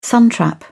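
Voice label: "suntrap", English, uk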